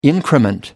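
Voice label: "increment", English, British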